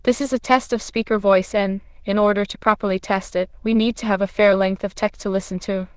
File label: speaker en